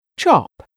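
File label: "chop", English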